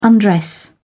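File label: "undress", British English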